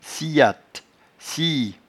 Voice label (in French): "sciatte", patois jura